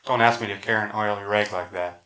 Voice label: male inv 16k